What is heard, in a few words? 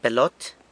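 "pelot", San Lucas Quiaviní Zapotec; ball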